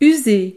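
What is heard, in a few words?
"use", French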